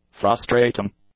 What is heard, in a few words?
"frustrating", English; adjectives